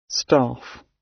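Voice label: "staff" British; Business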